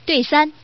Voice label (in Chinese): "dui", woman; guizhou